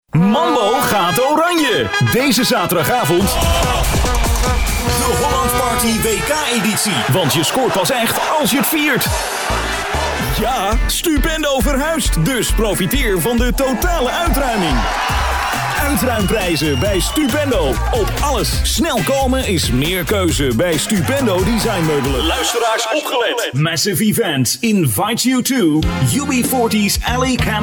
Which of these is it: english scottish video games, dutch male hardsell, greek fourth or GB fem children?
dutch male hardsell